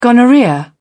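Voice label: "gonorrhoea", British English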